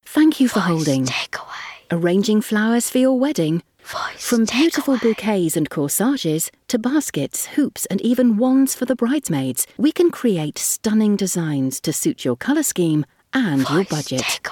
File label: Florists Smooth Female